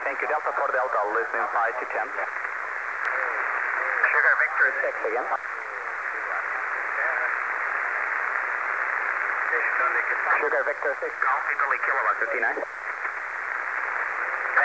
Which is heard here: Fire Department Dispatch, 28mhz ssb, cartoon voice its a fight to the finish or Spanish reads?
28mhz ssb